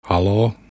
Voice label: hello, finnish; Haloo?; hello on the telephone